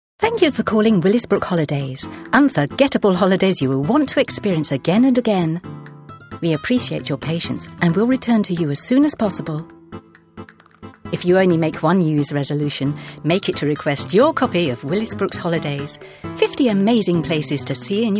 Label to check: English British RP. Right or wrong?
Wrong